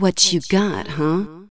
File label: what got, female